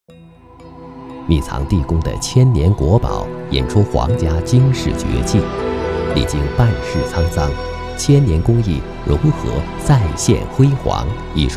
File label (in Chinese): 国语男声配音; cctv国宝档案老师配音-国宝